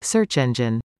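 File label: "search engine", English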